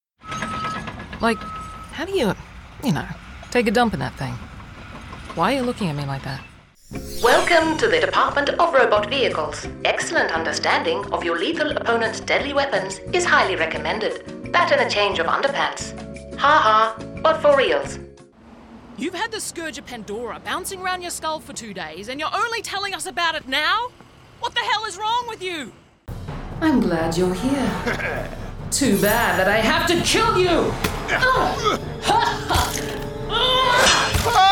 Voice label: Video Game Voice